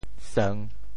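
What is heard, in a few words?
"seung3", Teochew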